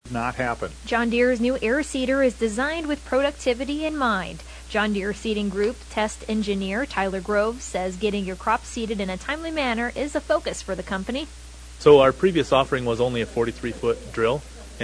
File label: air check; John Deere